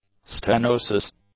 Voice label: "stenosis", english; nouns